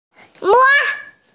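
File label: "me1", Mandarin Chinese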